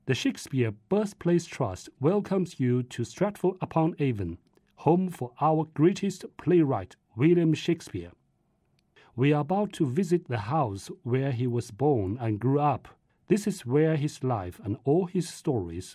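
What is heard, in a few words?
Mandarin English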